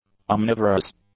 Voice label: "omnivorous", English; adjectives